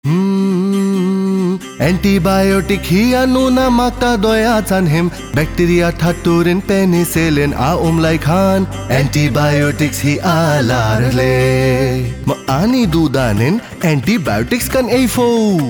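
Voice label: Antibiotic Jingle Mizo; jingles in 19 languages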